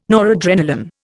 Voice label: "noradrenalin", Indian